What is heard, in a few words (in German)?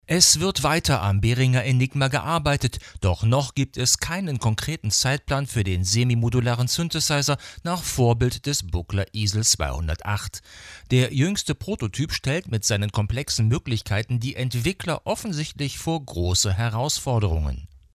Maono PD400X Sprachaufnahme Android